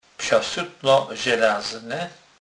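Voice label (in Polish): "psasutno", Kurpie dialect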